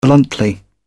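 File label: "bluntly", British English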